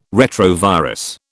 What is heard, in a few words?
"retrovirus", British